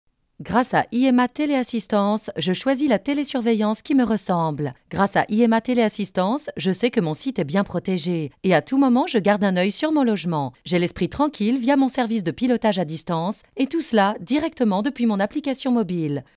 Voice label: Radio Spot

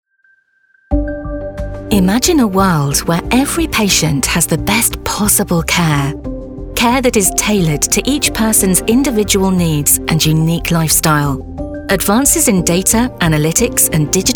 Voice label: Corporate Showreel

